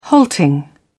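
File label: "halting", British English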